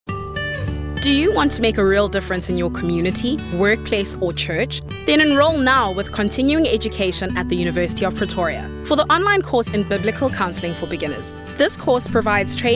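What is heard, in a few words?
English Natural Conversational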